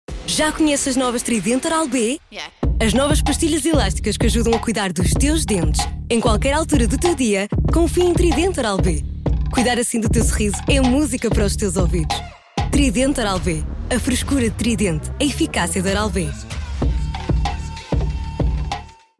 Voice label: Spot